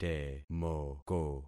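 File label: "temoko", concatenated syllables; Affixing experiment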